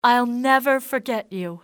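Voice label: thank, english female; XBOX; civ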